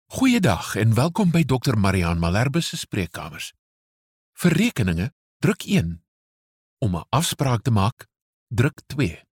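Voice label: Afrikaans, male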